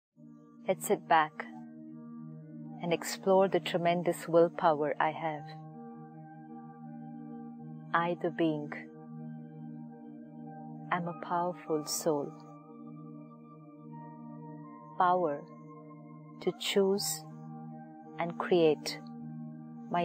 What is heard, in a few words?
meditation; sis shivani